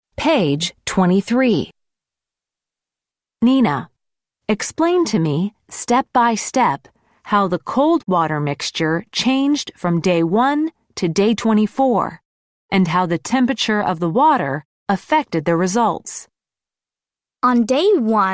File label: eLearning